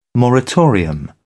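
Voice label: "moratorium", British English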